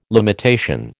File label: "limitation", American